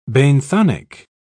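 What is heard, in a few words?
"benthonic", English, British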